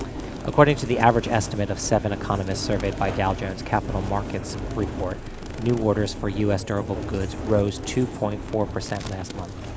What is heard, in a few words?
noisy CHIME simu BUS; CleanMel; single-channel Mel-spectrogram denoising and dereverb